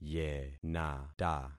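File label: "yenata", concatenated syllables; Affixing experiment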